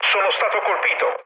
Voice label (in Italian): aircraft damaged, mood low, speech it